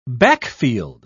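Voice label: "backfield", British English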